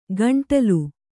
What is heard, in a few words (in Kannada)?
"ಕಾಂಡಲು", Kannada